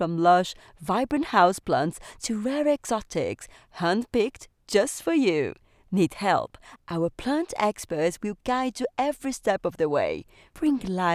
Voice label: female english with portuguese accent commercial; brazilian portugese